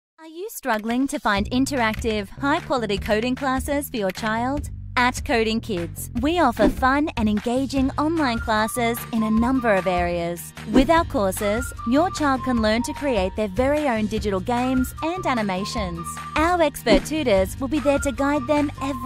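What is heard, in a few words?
English Australian Female Explainer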